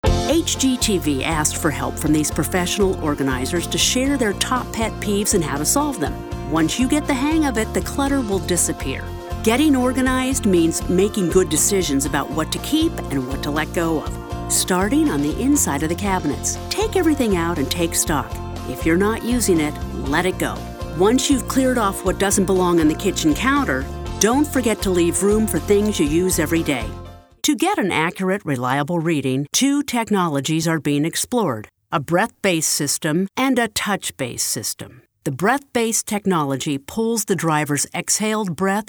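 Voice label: narration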